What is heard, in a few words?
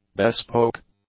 "bespoke", English; adjectives